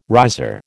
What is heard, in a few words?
"riser", American